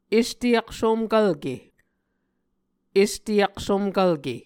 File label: "este aksomkvlke", Muscogee